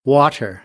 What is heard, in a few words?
"water", American, English